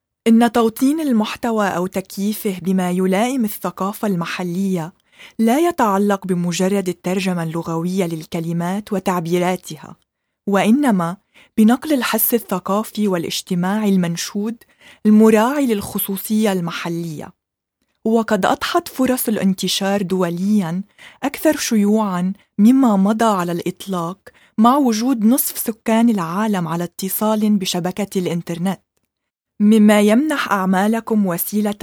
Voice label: arabic classical female